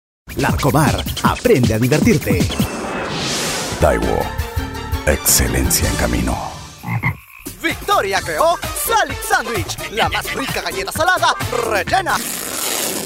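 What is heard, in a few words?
Spanish male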